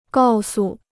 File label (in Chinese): "告诉", Chinese